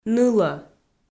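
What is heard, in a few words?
"нылӧ", Komi, female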